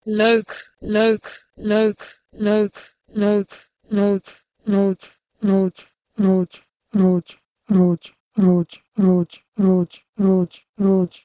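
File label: Proto-Indo-European leuk to Tajik ruz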